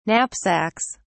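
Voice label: "knapsacks", British English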